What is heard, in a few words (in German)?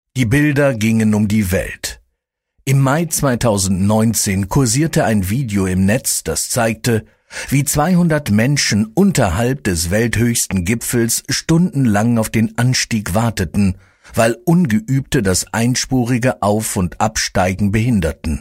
German audiobooks; professioneller deutscher männlicher Sprecher und Synchronsprecher